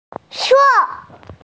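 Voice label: "re4", Mandarin Chinese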